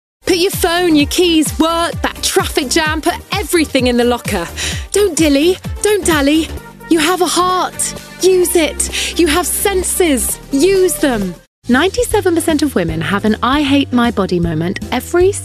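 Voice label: British, TV commercial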